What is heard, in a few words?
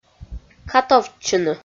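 "катовтчыны", Komi, female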